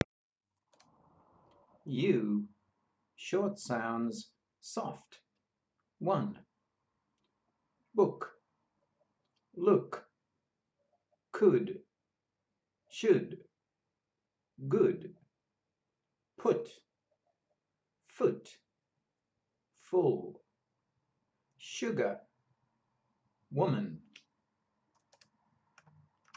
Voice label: U short sounds soft, pronunciation of australian english